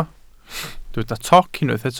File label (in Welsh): "toc", Welsh